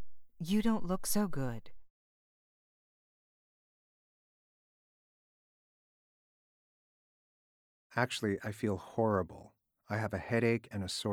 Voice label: Pronunciation Practice